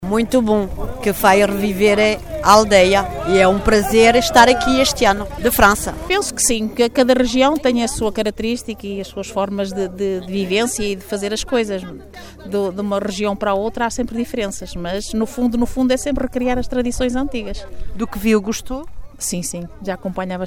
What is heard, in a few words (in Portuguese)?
desfolhada vox pop visitantes; desfolhada de murcos